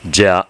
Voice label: "zya", hiragana